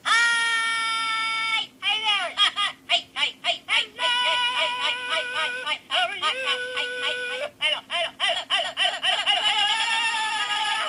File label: Annoying Hi, greeting card with sound